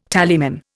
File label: "tallyman", Indian